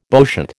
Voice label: "bowshot", American